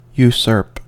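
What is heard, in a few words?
"usurp", American English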